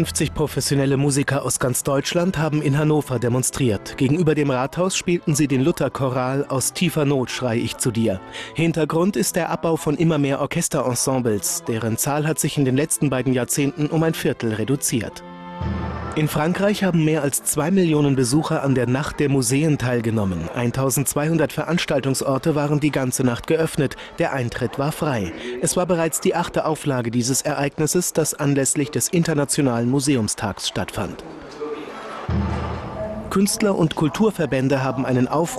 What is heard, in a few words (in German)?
Doku News; kulturzeit